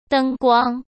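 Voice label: "灯光", Mandarin Chinese; hsk; chinese flashcards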